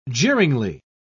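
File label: "jeeringly", British English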